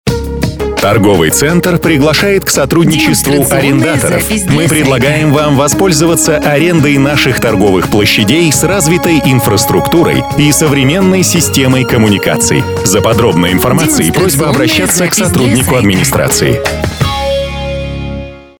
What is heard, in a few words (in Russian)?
Площади в аренду, мужской